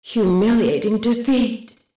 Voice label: "humiliating defeat", male